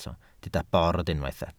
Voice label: "bord", Welsh